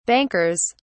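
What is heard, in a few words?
"bankers", British English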